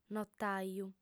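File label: "notaju" Sardinian, female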